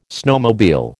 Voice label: "snowmobile", American